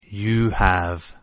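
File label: "you have", English; Contractions Pronunciation Practice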